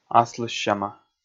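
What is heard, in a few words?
"аслыссяма", Komi, male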